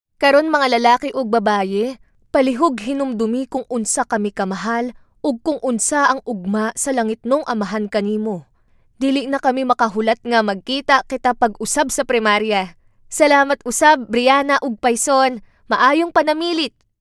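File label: Cebuano female voice-over Voice Sample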